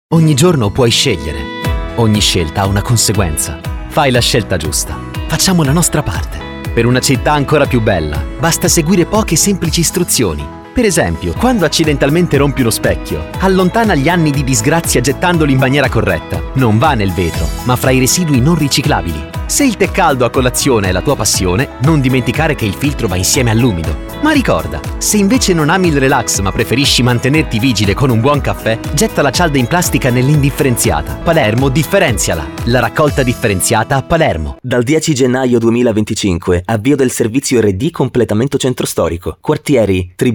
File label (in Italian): spot radio; campagna rifiuta i luoghi comuni